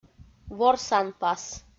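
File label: "ворсанпас", Komi, female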